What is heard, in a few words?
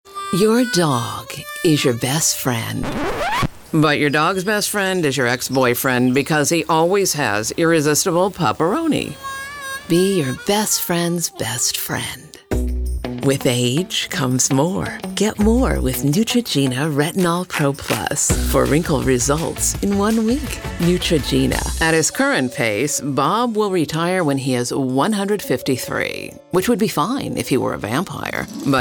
Commercial